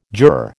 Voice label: "juror", American